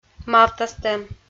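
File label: "мавтастӧм" Komi, female; kpv audio dict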